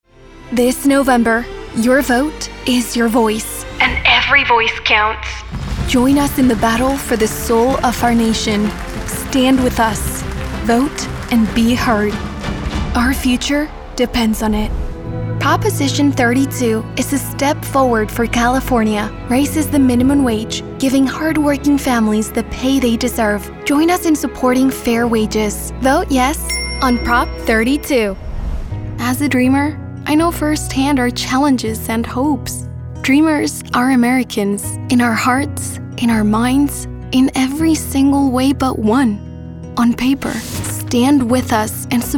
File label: English Political